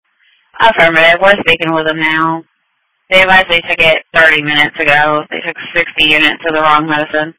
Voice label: EMS Dispatch

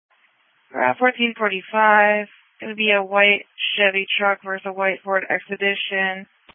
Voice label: Police Patrol 1 (Dispatch)

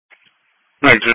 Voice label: EMS Dispatch

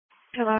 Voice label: New Bern Police Patrol 1 (Dispatch)

